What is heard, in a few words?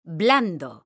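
"BLANDO", Spanish, female; mekanta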